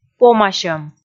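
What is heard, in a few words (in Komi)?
"помасьӧм", Komi, female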